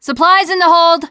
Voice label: on big ship, teengirl, voice

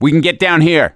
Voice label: misc directional, voice; gambler

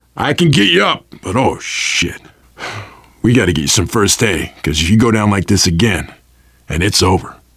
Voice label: revive critical friend, voice, biker; Left 4 Dead 2; survivor

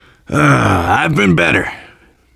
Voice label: getting revived, voice, biker; Left 4 Dead 2; left4dead2 dlc3; survivor

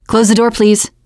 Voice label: close the door, teen girl; player; survivor; voice

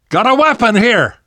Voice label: spot weapons, survivor voice; Left 4 Dead 2; left4dead2 dlc3

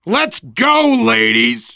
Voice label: hurry up, voice, biker

